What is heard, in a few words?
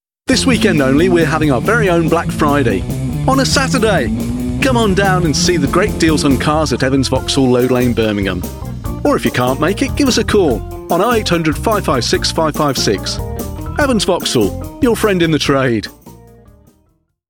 ad